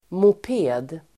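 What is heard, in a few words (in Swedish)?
"moped", Swedish; moped substantiv, moped; Uttal: [mop'e:d]; en slags motordriven cykel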